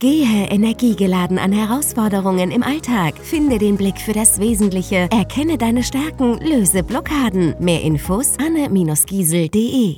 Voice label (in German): Funkspot Business Coaching